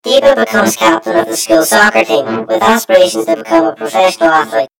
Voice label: story voiceover; yumpod